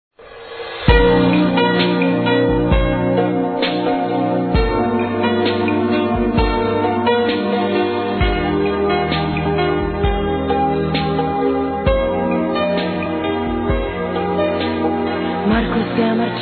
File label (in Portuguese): Aniversário Tio Infantil Feminino; Telemensagens